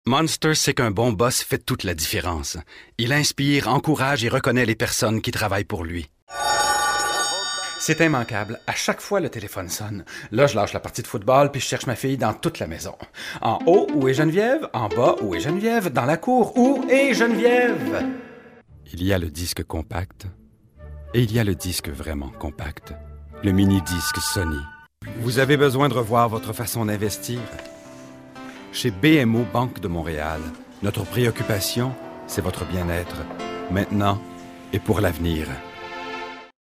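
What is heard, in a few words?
French Canadian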